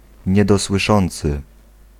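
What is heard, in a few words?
"niedosłyszący", Polish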